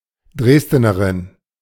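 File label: "Dresdenerin", German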